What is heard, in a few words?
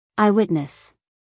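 "eyewitness", American English, female; everyone-can-use-english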